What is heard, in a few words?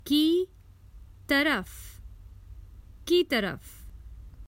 Common Hindi Phrases for travel Towards